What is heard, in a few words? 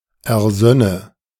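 German "ersönne"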